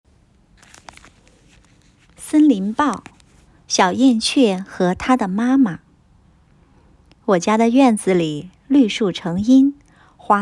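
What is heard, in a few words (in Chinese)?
女干音童话朗诵活泼可爱; 小燕雀和妈妈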